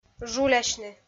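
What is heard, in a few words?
"жулясьны", Komi, female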